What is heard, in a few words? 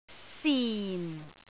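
"sin4", Cantonese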